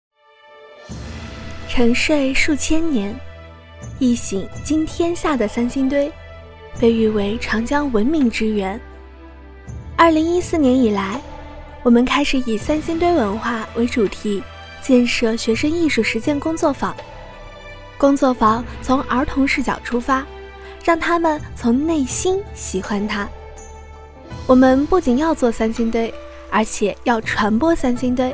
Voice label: 专题 讲述风格; 三星堆

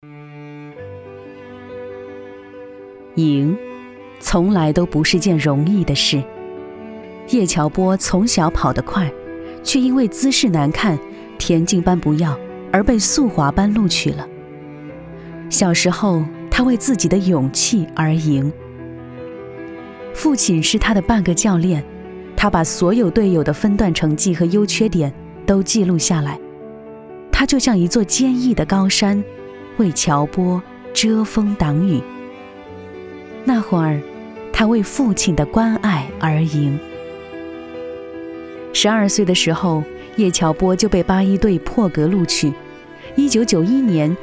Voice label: 女 专题人物类 抒情

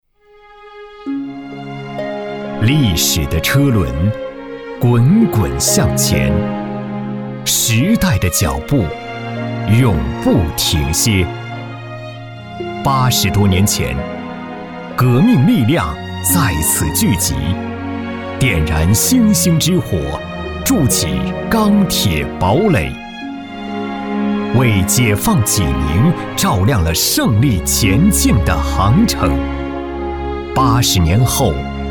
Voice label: 男 非遗项目; 崔家大院

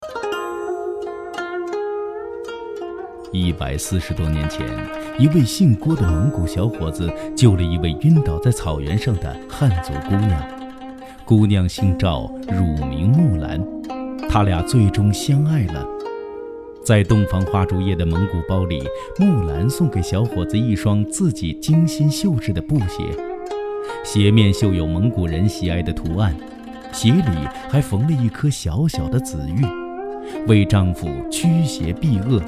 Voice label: 男 非遗讲述类 紫玉木兰手工布鞋

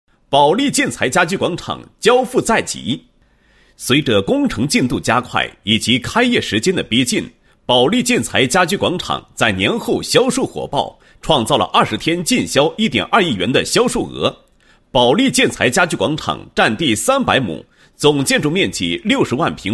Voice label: 男27-宣传广告; 利建材家居广场交付在即宣传

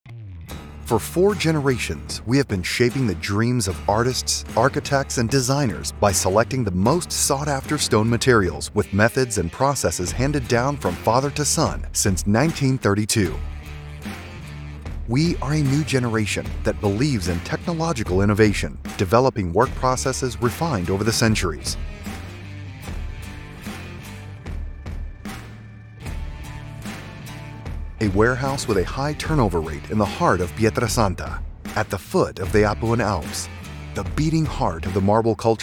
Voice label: 外籍英男 宣传广告

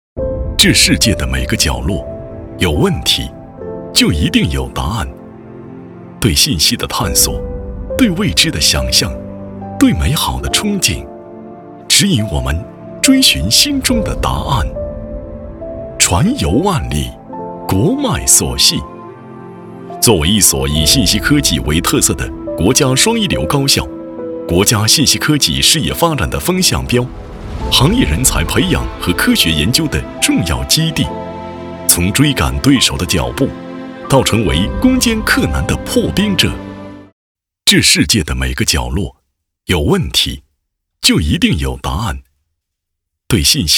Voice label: 男 学校专题类; 北京邮电大学

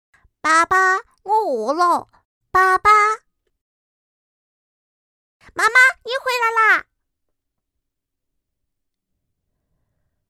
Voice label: 女 四川话男童 角色 四川话

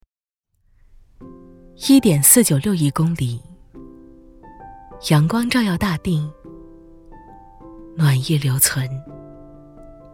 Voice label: 女-讲述

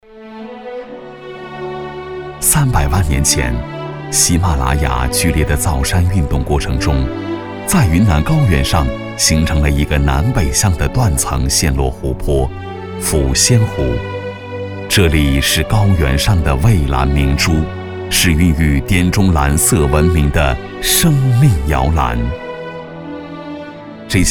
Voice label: 男 纪录片; 抚仙湖

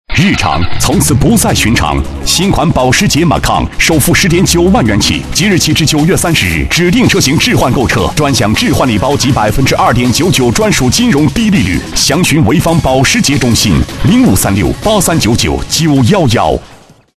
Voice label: 男 广告品牌

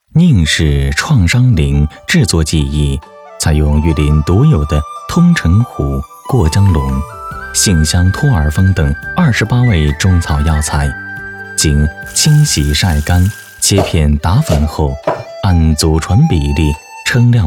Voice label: 男 宣传片; 甯氏创伤灵

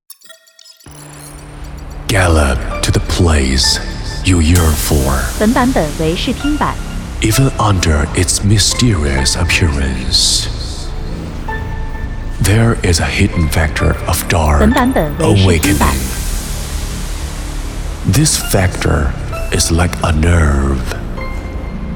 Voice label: 男 英文 大气; steam-一周年intro

